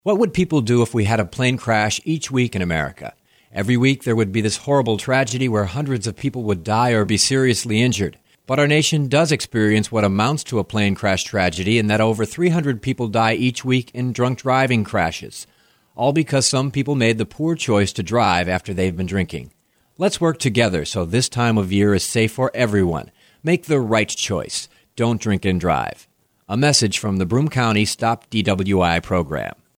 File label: Plane Crash Radio